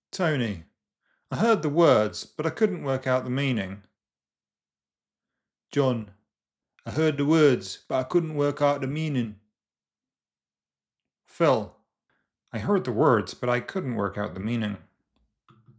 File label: SSBE GA